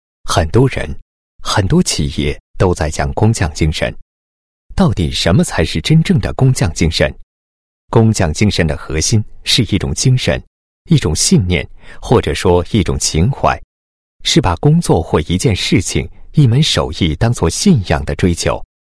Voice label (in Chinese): 男, 品牌宣传; 先马《制造传奇》配音